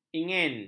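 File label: "ingin", Malay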